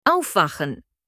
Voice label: waking up in german

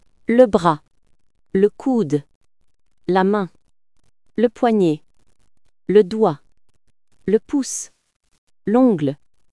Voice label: Body parts in french

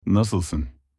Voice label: how are you in turkish

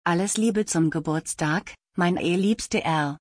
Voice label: Happy birthday my dear in German; تولدت مبارک به آلمانی

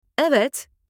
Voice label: yes in turkish

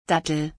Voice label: Dates in German; خرما به آلمانی

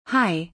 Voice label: hi in german

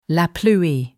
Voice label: rain in french

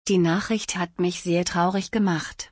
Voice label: I was very saddened by the news in German; تسلیت گفتن به آلمانی

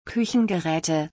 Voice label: Kitchen appliances in German

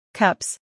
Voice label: "Cups", English

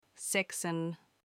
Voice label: eighty in turkish

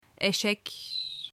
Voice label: donkey in turkish

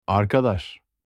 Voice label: friend in turkish

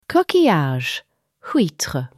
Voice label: Oyster in french

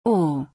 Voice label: The letter O in German

